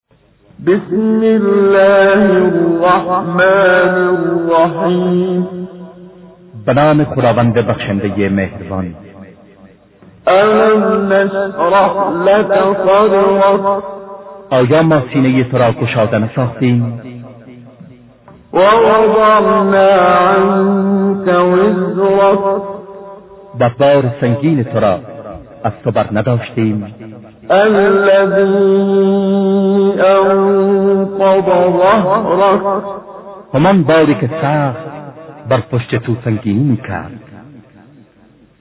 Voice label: Surah Farsi; Menshay Translation